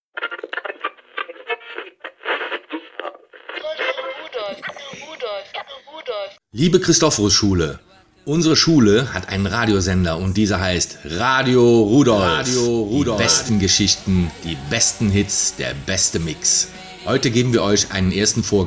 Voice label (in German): Werbung Martinsmarkt